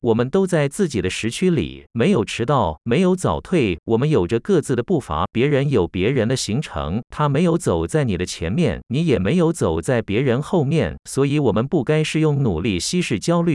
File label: Male